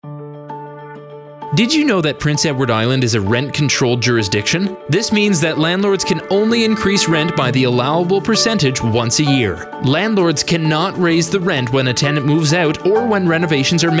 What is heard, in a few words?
Rental radio commercial